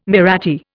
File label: "Mahratti", Indian